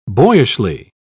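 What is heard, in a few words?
"boyishly", English, British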